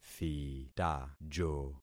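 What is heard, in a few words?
"thitajo", concatenated syllables; Affixing experiment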